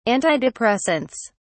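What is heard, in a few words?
"antidepressants", British English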